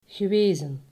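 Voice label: "gewezen", Dutch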